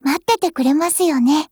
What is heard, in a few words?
LOADING, JP